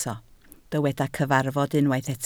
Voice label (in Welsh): "cyfarfod", Welsh